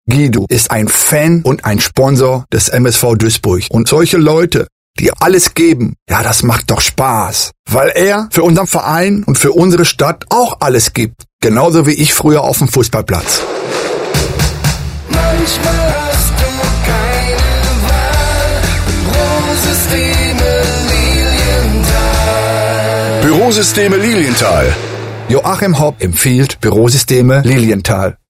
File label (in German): Spot Sponsor